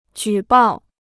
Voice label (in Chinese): "举报", Chinese